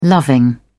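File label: "loving", British English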